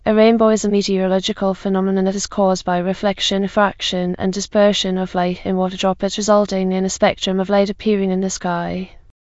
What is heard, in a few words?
speaker, en GB; piper voices; vctk